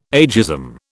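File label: "ageism", British English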